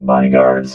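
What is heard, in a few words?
"bodyguards", robot voice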